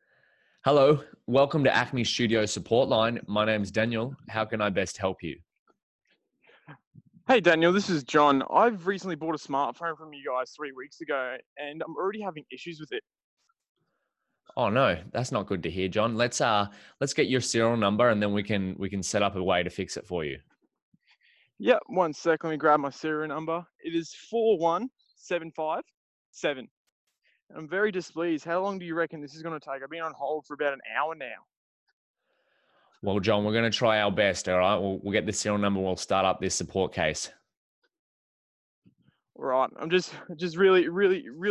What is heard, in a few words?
call stereo